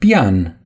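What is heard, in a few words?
"piano", Romanian; gcompris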